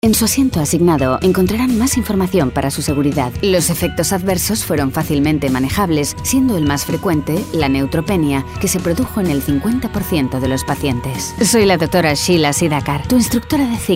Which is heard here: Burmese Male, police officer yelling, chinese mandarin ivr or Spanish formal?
Spanish formal